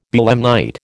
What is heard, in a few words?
"belemnite", American